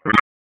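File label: Police Spec Ops Tac, radio; Raleigh; Tac 4; wake; p25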